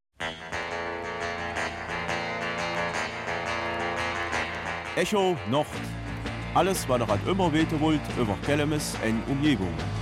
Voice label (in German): Kelmiser Mundart; Kino-Konzert mit der Königlichen Harmonie von Moresnet